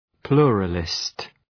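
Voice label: "pluralist", English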